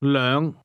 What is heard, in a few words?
"two", cantonese; numbers